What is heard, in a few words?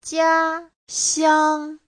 "jia1xiang1", chinese; Giáo trình Hán ngữ